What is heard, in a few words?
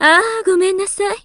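"gomenasai", Japanese; bern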